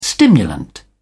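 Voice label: "stimulant", British English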